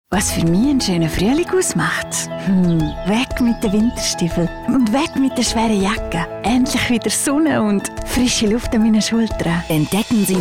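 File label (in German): Werbung, Swiss, hoch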